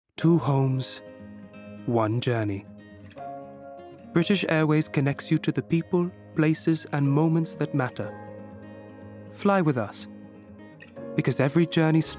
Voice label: Indian Commercial